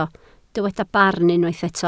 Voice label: "barn", Welsh